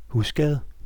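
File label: magpie, Danish; animals; birds; tuxpaint-stamps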